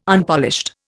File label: "Unpolished", Indian